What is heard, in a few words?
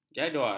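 "jadual", Malay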